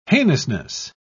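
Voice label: "heinousness", British English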